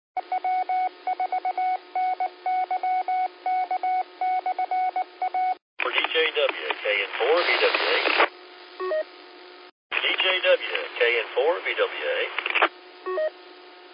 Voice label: qso rec simplex logic